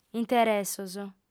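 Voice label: "interessos", Sardinian, female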